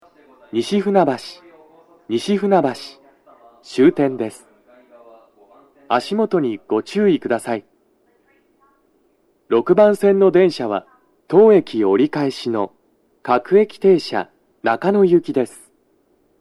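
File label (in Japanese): 到着放送 男声, 当駅始発 各駅停車 中野行; 収録者:こたけ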